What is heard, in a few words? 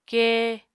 "kée", Apatani